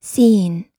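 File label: "seeing", American English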